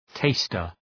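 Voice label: "taster", English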